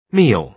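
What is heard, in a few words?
"meal", British English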